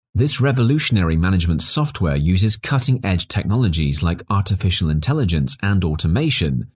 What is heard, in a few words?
male, english